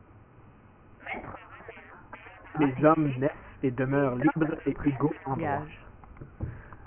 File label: long example separated french